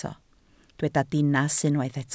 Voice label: "dinas", Welsh

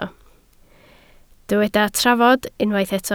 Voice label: "trafod", Welsh

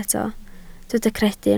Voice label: "credu", Welsh

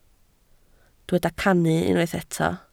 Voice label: "cannu", Welsh

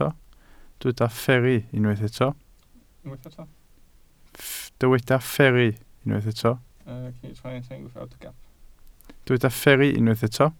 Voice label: "fferru", Welsh

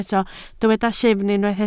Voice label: "llyfn", Welsh

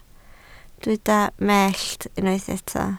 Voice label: "mellt", Welsh

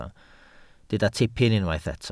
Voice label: "tipyn", Welsh

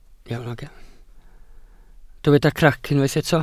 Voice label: "crac", welsh

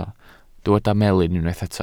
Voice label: "melyn", Welsh

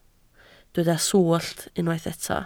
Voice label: "swllt", Welsh

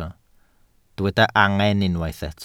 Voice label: "angen", Welsh

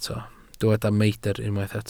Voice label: "meidr", Welsh

